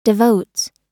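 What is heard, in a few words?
"devotes", American English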